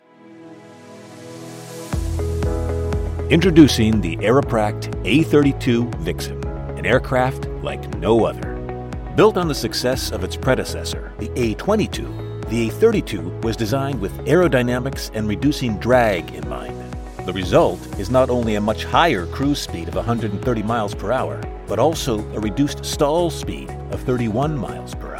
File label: english american explainer videos